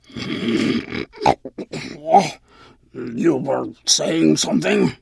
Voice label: Tuskarr Female Pissed; Magic User